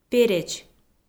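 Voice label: "переч", Komi, female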